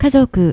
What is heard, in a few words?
"kazoku", Japanese